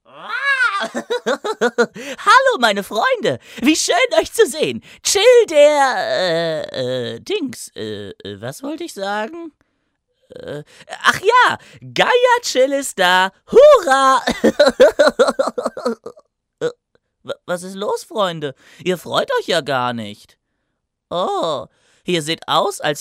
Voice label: Trickstimme

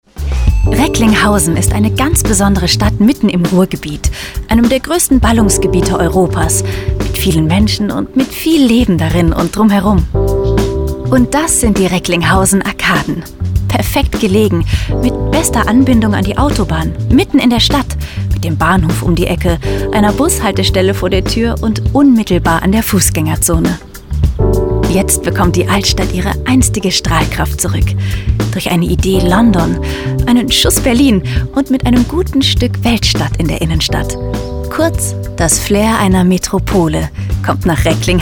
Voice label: Imagefilm

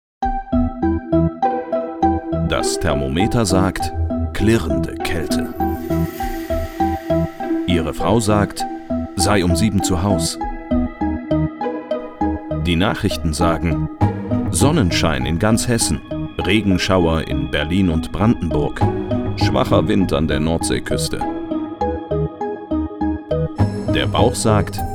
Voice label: deutsch; Quattro

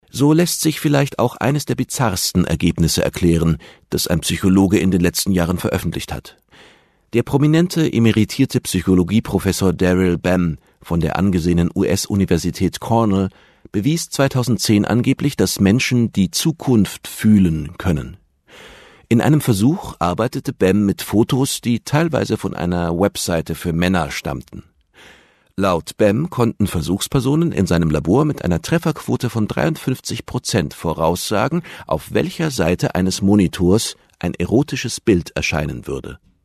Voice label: Dokumentation Psychologische Studien; WDR5; Leonardo

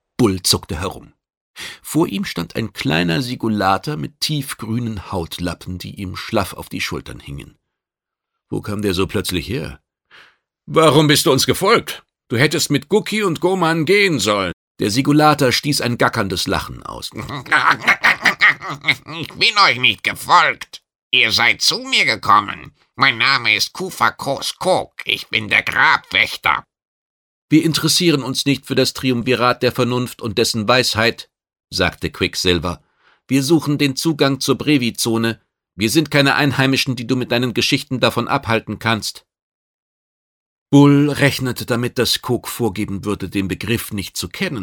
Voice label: Hoerbuch; Perry Rhodan; Ungluecksplanet

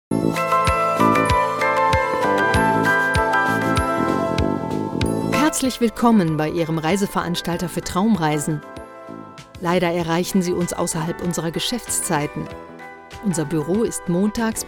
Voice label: Telefonschleife

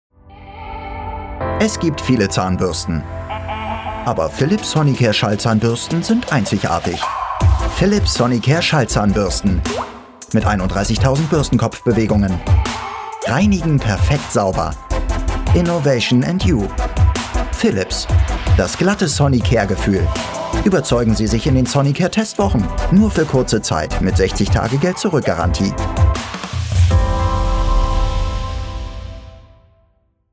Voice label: Werbung Hygiene

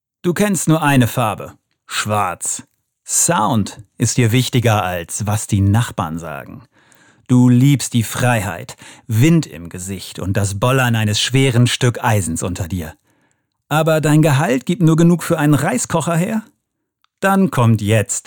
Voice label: Werbung

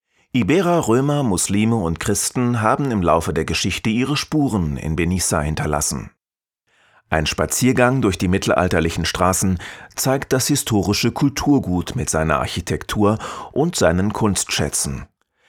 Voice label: Off Voice Doku; Spanien

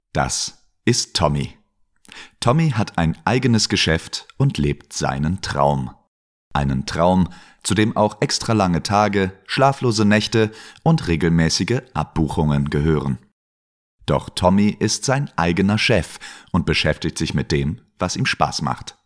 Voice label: E-Learning

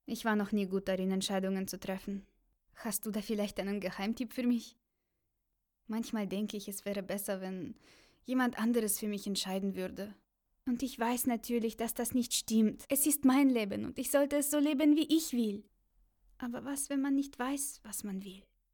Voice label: Russischer Akzent

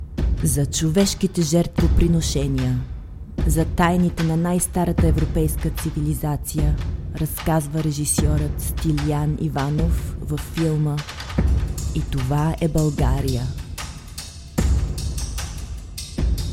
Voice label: Ansage Film Bulgarisch

